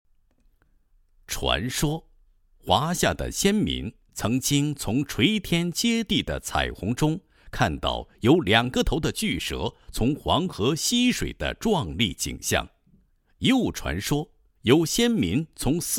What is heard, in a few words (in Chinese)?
男, 文化宣传 非物质文化遗产代表 舞龙; 西街舞龙